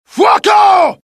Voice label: alert, dialogue IT; mohaa; attack